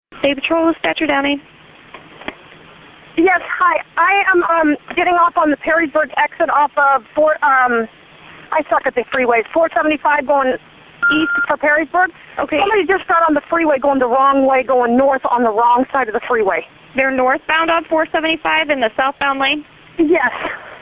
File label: 911 call